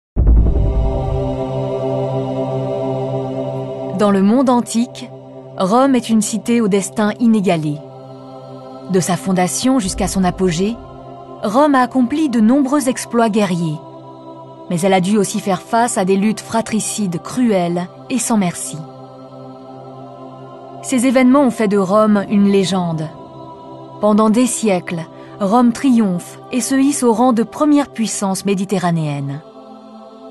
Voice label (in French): Institutionnel Narration; Rome l'histoire d'un empire